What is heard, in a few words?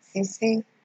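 "sisi", Nigerian Pidgin, female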